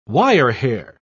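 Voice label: "wirehair", British English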